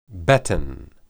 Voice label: "beten", Hebrew

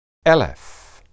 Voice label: "eleph", Hebrew

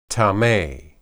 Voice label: "tame", Hebrew

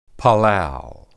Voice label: "palal", Hebrew

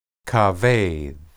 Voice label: "kabed", Hebrew

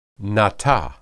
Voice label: "natah", Hebrew

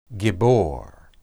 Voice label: "gibbor", Hebrew

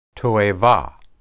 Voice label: toebah, hebrew

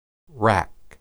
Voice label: "raq", Hebrew

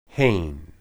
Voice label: "hen", Hebrew

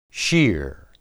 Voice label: "shir", Hebrew

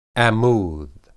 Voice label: ammud, hebrew

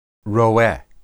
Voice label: "roeh", Hebrew

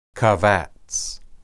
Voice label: "qabats", Hebrew

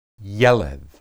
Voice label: "yeled", Hebrew

